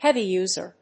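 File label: "Heavy-user", English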